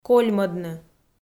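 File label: "кольмӧдны", Komi, female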